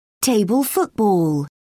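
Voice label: "table football", British English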